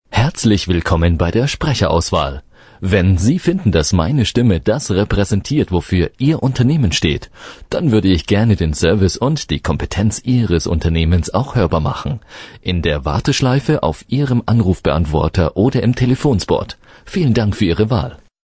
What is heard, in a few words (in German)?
Ansage